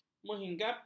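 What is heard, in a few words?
"menghinggapi", Malay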